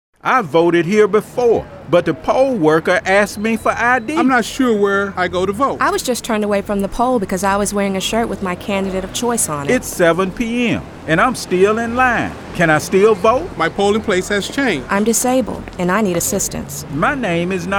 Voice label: Radio; NAACP; GOTV